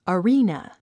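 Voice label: "arena", English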